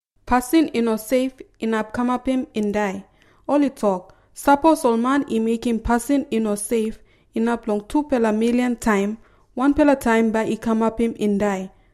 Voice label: Tok Pisin

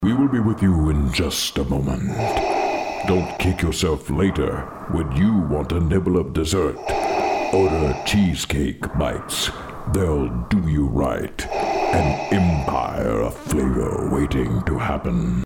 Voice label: Character; Darth Vader

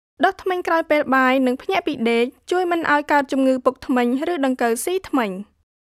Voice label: Khmer

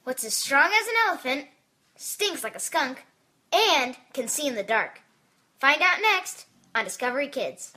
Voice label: US Child

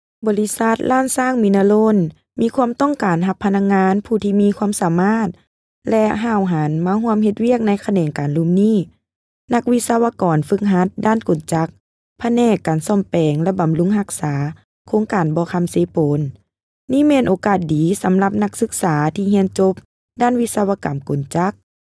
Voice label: Lao

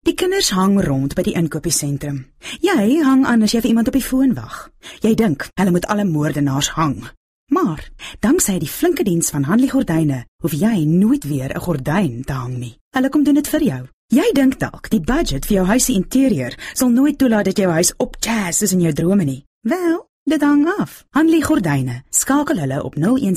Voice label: AFRIKAANS

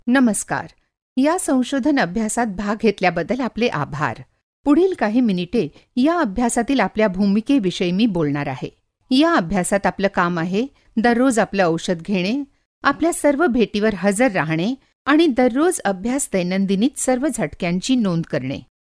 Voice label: Marathi

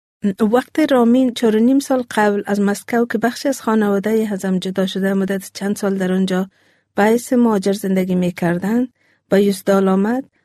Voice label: Dari

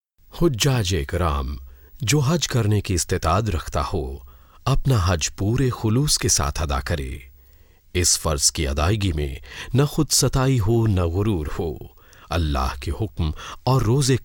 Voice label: Urdu

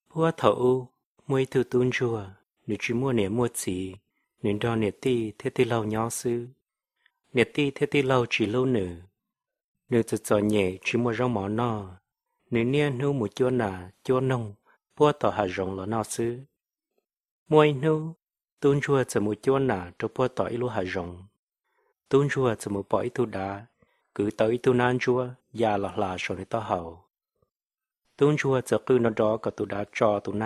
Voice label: Hmong

